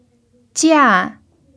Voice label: "jia4", chinese pinyin